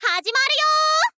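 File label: "hazimaru yo", Japanese, girl; voice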